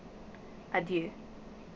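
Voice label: "adieu", Canadian, female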